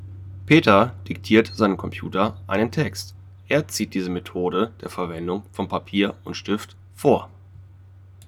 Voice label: epos clear